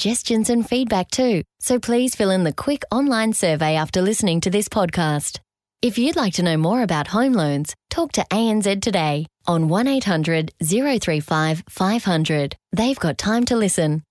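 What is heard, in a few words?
corporate voice over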